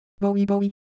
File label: Ewe Texture, IdeoPhones